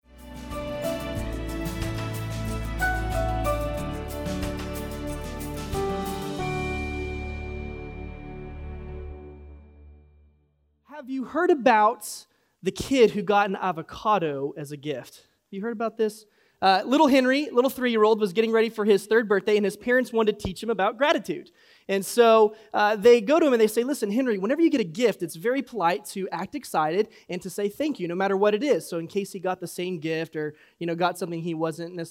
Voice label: English Sermon